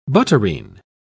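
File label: "butterine", English, British English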